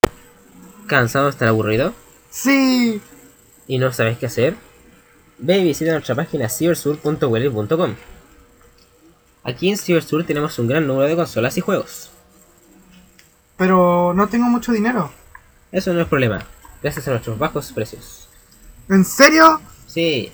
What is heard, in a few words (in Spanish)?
comercial radial